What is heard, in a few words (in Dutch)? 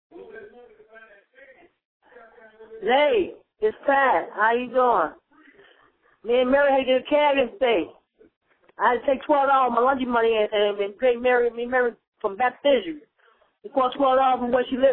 gekke voicemail